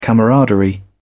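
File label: "camaraderie", British English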